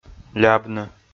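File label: "лябны" Komi, male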